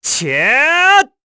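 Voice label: Vox Casting, korean; Esker; kingsraid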